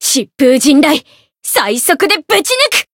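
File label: Voice limit break, Japanese; kikyou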